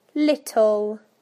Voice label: "little", UK; PictureDictionary

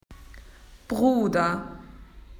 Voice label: brother, spoken AU; PictureDictionary

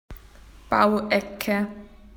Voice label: building corner, spoken AU; PictureDictionary

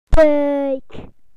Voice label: "stomach", BE; PictureDictionary

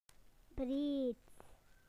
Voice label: "wide", BE; PictureDictionary

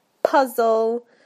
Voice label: "puzzle", UK; PictureDictionary

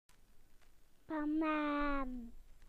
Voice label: "banana", BE; PictureDictionary

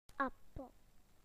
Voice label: "apple", BE; PictureDictionary

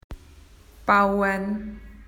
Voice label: to build, spoken AU; PictureDictionary

